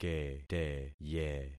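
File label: "keteye", concatenated syllables; Affixing experiment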